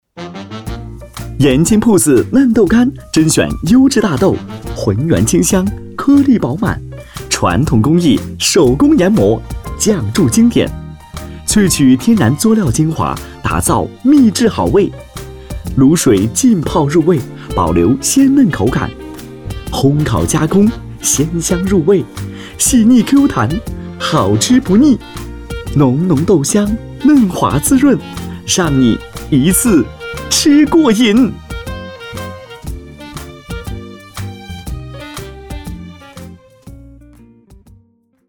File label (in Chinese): 广告 欢快时尚; 时尚广告铺子